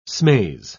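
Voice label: "smaze", English, British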